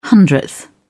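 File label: "hundredth", English, British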